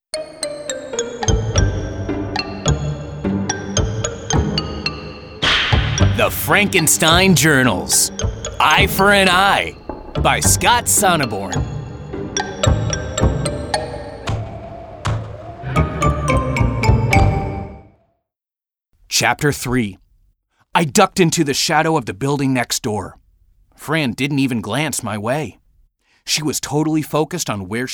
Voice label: Audiobook, voiceover

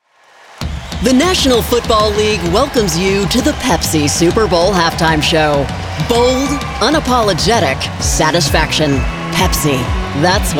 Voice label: Live Announcer

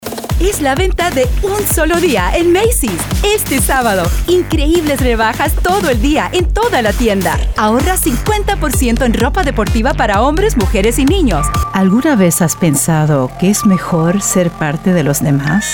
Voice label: Commercial Spanish, voiceover

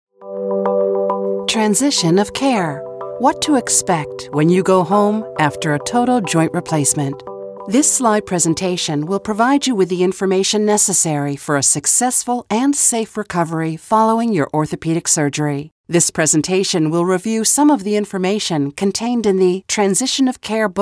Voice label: eLEARNING

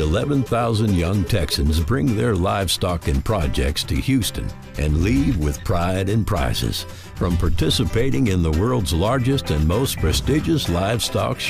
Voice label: Texas Western

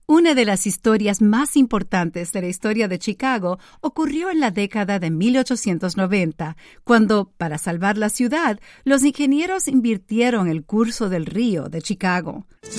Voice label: Bilingual Audio Tours

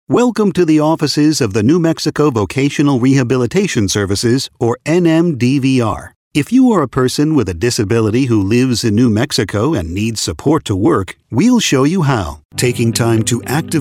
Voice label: eLearning

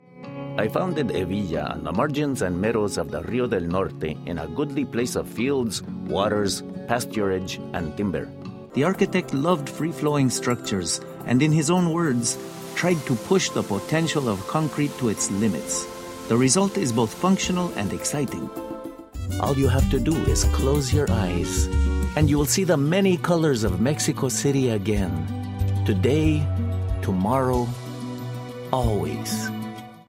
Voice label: Audio Tour Hispanic Accented

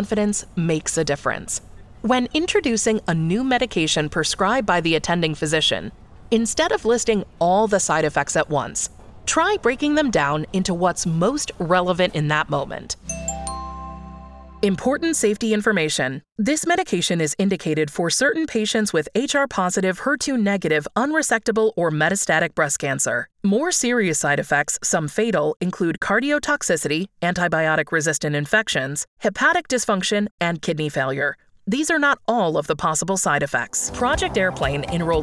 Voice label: Medical, Voiceover for Medical Narration

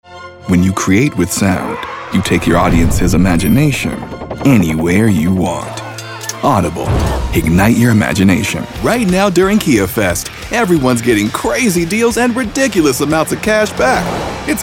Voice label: Commercial, voiceover

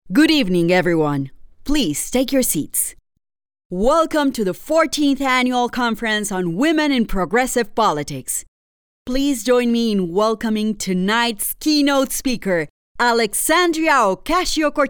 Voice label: Voice of God

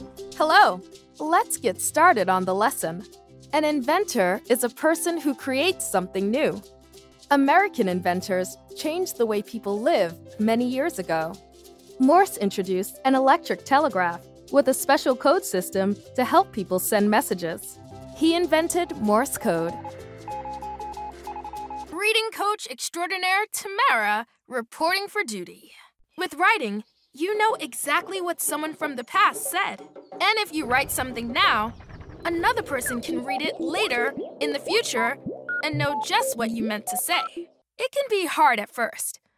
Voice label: ELearning K 12

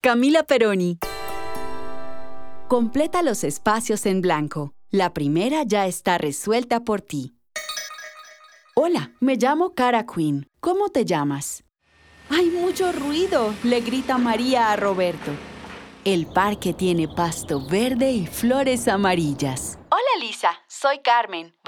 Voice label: eLearning Spanish

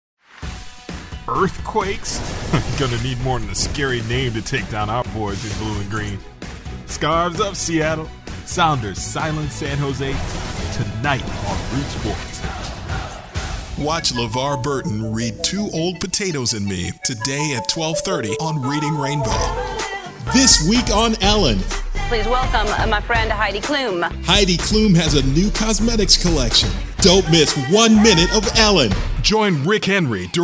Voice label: Promo Light

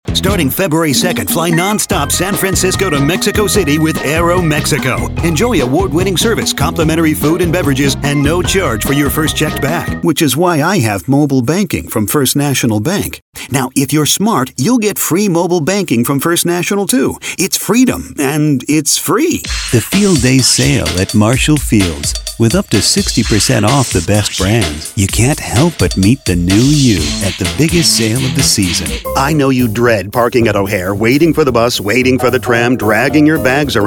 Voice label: Retail, voiceover

